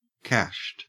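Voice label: "cashed", Australian English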